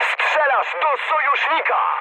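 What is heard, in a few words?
player friendly fire, mood high, Polish speech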